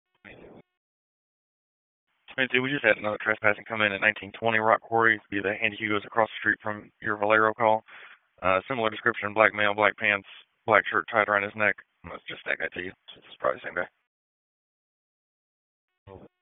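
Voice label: Police Southeast District Dispatch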